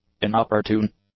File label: "inopportune", English; adjectives